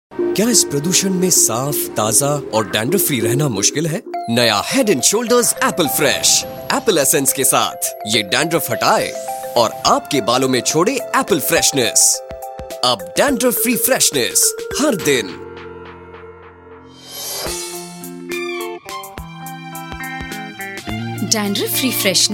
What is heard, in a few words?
HINDI, male voice; mt dew